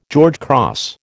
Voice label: "George Cross", American